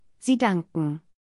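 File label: pronunciation sie danken, German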